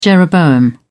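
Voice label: "jeroboam", British English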